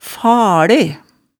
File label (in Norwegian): "fale", hemsedalsmaalet